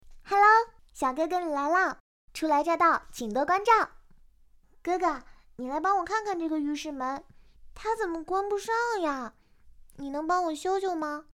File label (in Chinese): 邻家妹妹类型